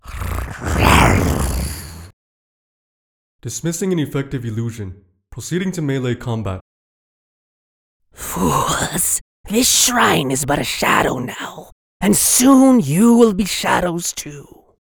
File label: misc monsters; Monsters (Aberration, Construct, Shadow); character samples